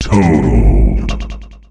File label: Totalled, announcer male; Unreal Tournament; ut2004